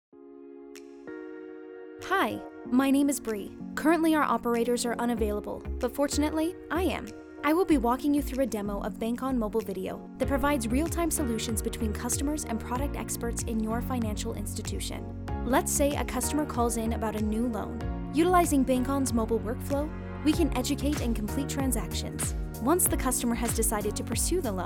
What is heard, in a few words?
Narration